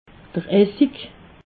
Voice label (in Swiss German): "essig", alsace